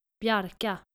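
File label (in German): "Bjarka", dänische Mädchennamen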